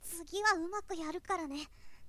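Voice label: "tugihaumakuyarukarane", Japanese, boy; shonen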